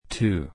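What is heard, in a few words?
"two", English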